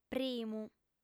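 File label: "primu", Sardinian, female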